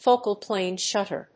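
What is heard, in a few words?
"focal-plane shutter", English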